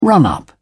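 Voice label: "run-up", English, British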